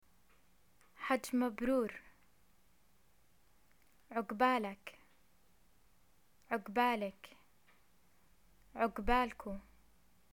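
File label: greet hajj, arabic, Jordanian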